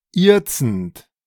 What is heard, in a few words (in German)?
ihrzend, De